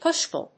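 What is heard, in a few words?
"push-pull", English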